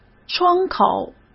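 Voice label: "窗口", Mandarin Chinese; chinese-flashcards; hsk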